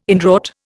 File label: "inwrought", Indian